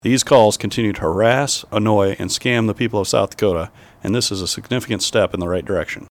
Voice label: robocall